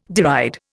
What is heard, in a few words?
"deride", Indian